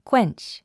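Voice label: "quench", English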